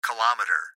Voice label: Gps Voice, Male

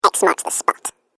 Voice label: Cartoon Game Voice X Marks

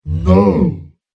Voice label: Female Cave Troll Says No, monster

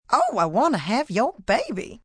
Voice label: Have Your Baby, Sexy, fun, female; Sex Sound Effects; VoiceBox; Twistedtracks

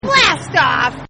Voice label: Kid Says BLASTOFF; Talking; Voice

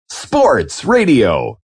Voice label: Sports Radio, Male; VoiceBox

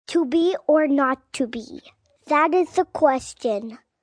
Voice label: To Be Or Child, Sayings, child; Talking Sound Effects

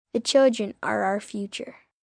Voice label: 1 boy 11 yrs; Sayings; Children

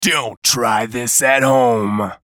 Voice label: VOICE COMICAL; Radio Voice; Blastwave

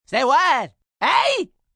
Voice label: Say What Eh, male; Response; Exclamation; Voice Box

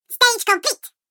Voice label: Stage Complete Cartoon Game Voice

